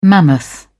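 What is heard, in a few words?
"mammoth", English, uk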